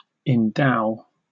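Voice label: "endow", British English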